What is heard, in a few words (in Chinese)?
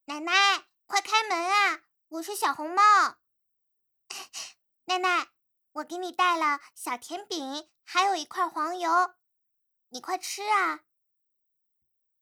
女童男童